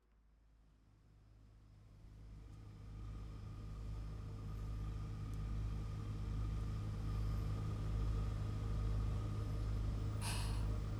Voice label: 添い寝、寝かしつけ; おやすみなさい、おともだち

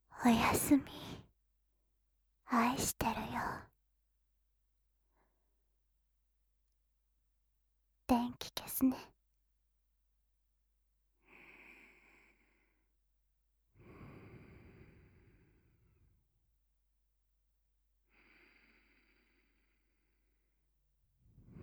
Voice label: おやすみなさい（添い寝）, ASMR

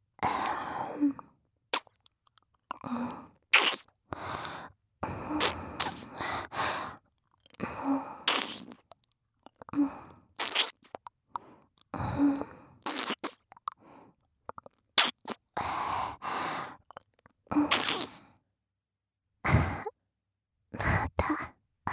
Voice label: 射精ボイスなし, ASMR

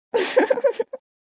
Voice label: mob 女子 台詞

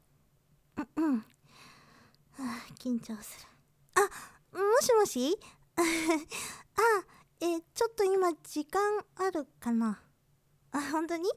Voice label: 貴方に電話。出会いの約束 （アドリブボイスドラマ）_モノラル

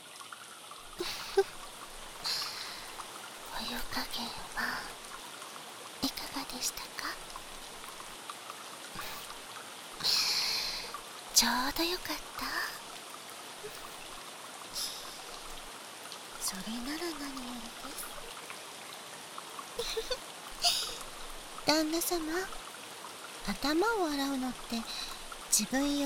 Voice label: 雨の中温泉でマッサージ（囁き重視編集 効果音あり）, ASMR

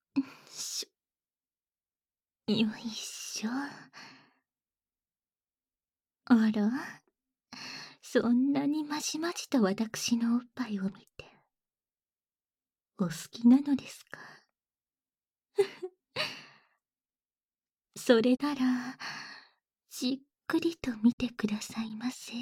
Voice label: ねっとり耳パイズリ（バイノーラルマイク 効果音なし）, ASMR

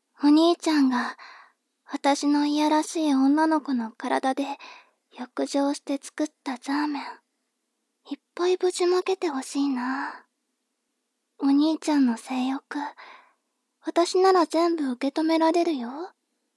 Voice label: 妹 台詞

